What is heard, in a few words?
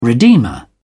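"redeemer", English, British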